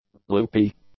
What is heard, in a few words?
"loopy", English; adjectives